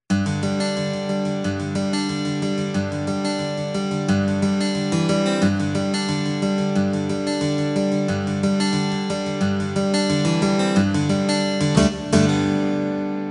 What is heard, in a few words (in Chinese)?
國語; 新北市; 有聲公報; 市議員